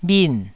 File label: "bhin4", taiwanese